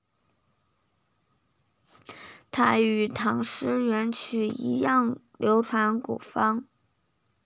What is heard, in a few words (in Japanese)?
子供中国語音声, 成長期の子供たちの声を収録; ATR Chinese Junior